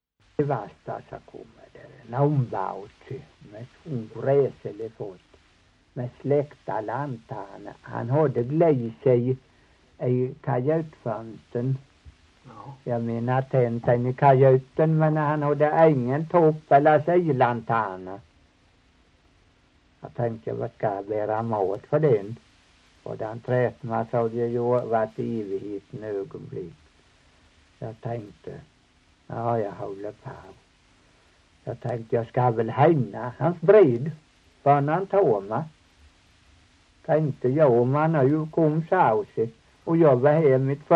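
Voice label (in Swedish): Torhamn Blekinge, dialekter; Folkminnen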